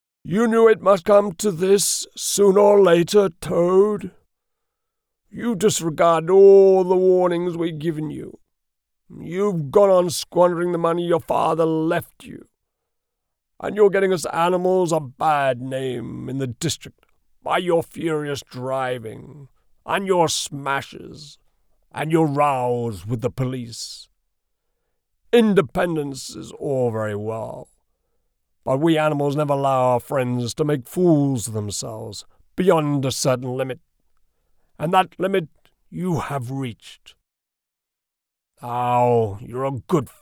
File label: Badger, British Male Voice; Character / Cartoon / Animal Character / Children